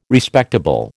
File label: "Respectable", American